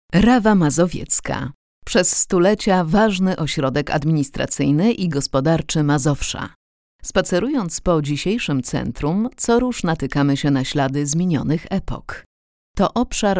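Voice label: voice female narration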